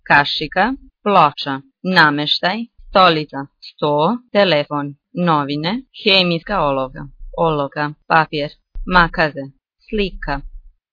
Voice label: croatian objects